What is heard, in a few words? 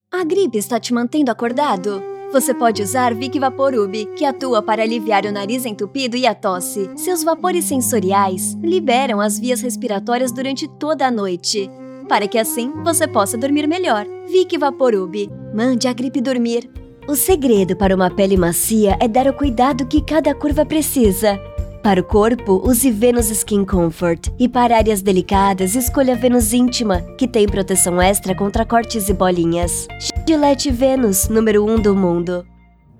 portuguese brazilian commercial; high voice actors